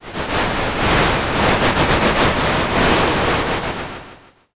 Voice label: whisper